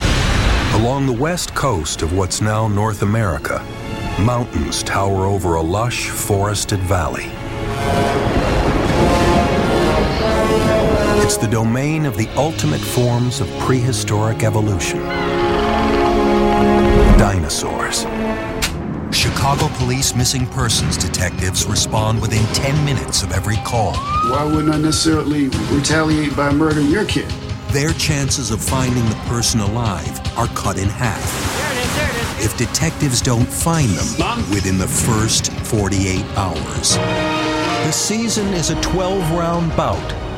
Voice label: men narration

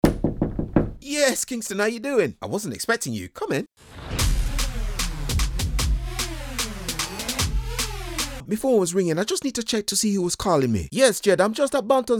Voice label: british animation; Clear, precise, and engaging.

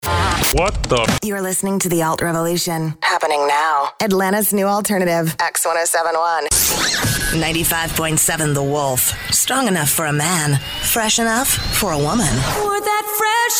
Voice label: women radio imaging; Textured sassy, smart, and smart voice.

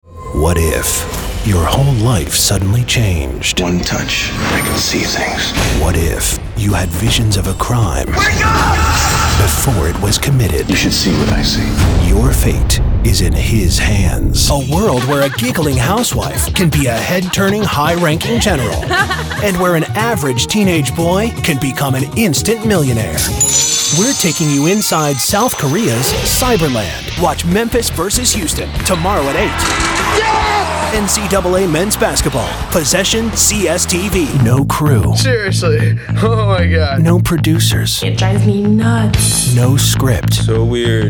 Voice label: men promos; Real, Fresh, Fun, Warm, Clean, Friendly, Hip.

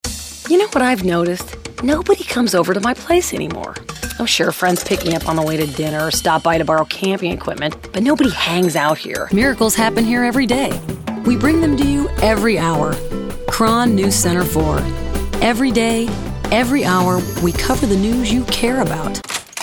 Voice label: women commercial; Scratchy and rough-hewn, friendly, smiley, deep sexy & textured.

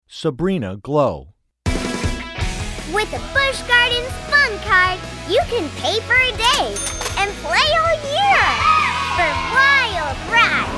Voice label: kids commercial; Energetic, adorable, fun, and inspiring.

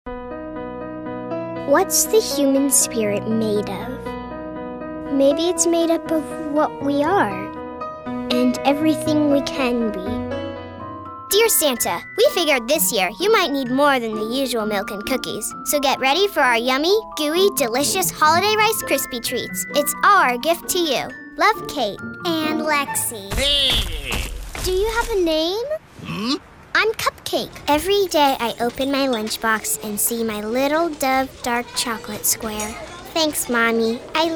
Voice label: kids commercial; Super sweet and clear voice, but she can bring gravity -- or the sass!

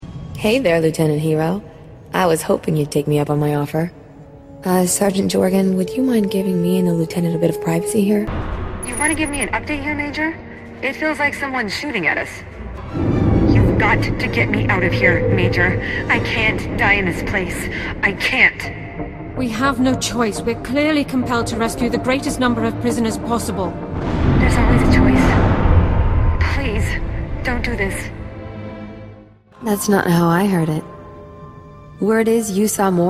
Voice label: women video games; Confident, textured, and sultry.

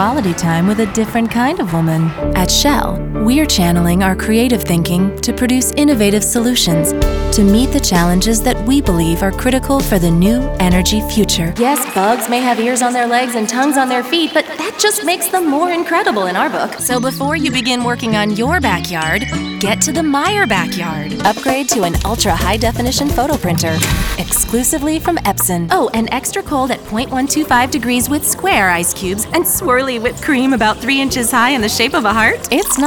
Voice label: women commercial; Bubbly. Bright. Casual. Spunky. Playful. Intimate. Clear. Peachy.

